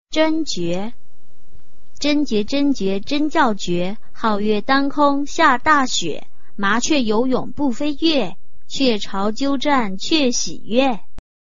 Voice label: chinese tongue twister